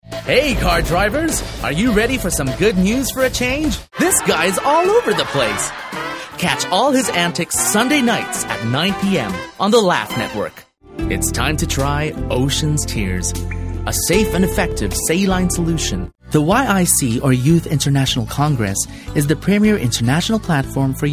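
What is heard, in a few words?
American English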